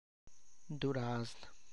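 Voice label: Tlacochahuaya Zapotec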